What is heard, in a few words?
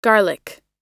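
"garlic" US English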